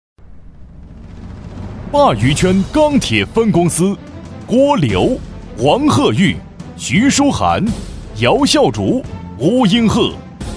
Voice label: 男 专题 职工名单

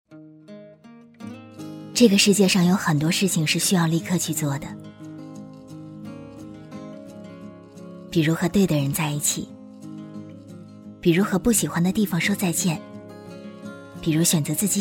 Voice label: 女 广告 微电影旁白类 温馨甜蜜 音乐

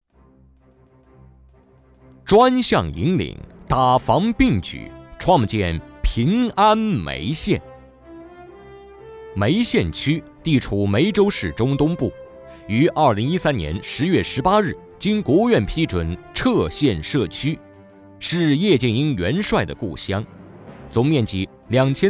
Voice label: 男 正气 沉稳 饱满; 创建平安梅县

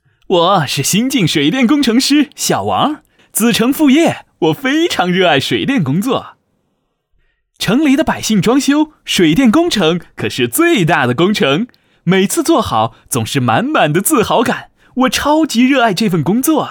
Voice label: 男-年轻活力

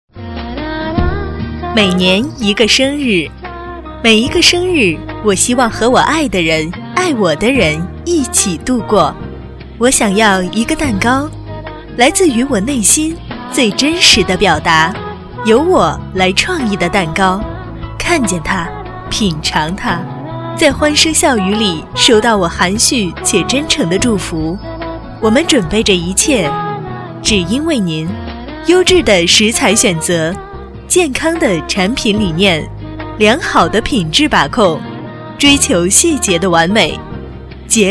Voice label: 女声配音, 彩铃广告, 感性唯美; 乐菲烘焙坊; 国语女声配音

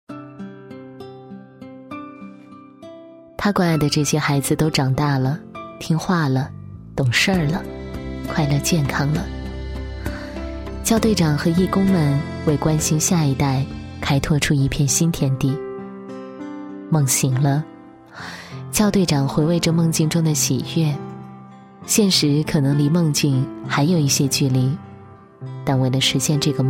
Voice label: 女 专题 义工队 自然旁白, 国语女声配音; 优雅配音

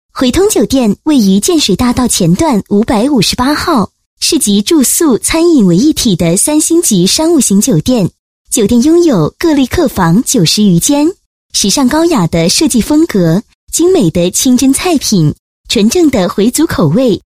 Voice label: 女 广告; 回通酒店宣传广告

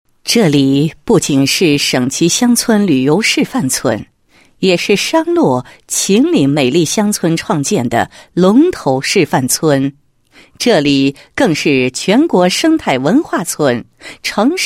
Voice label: 女 宣传片, 配音; 任家沟脱贫宣传

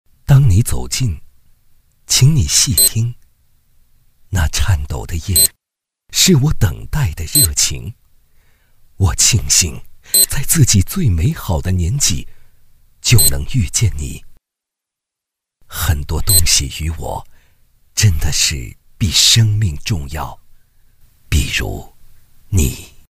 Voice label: 电影旁白

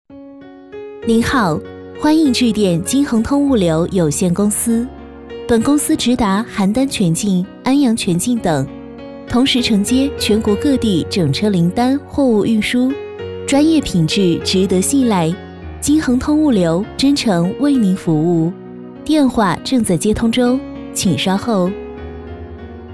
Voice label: 女 彩铃 物流

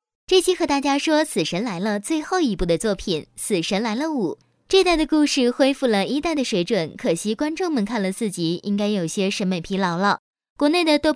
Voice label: 女, 电影解说类; 死神来了

